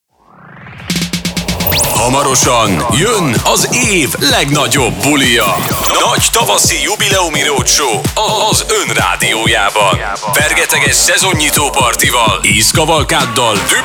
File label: Hungarian promos